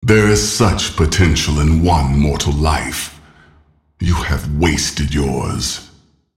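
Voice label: taunt, English; Nasus; Character Voices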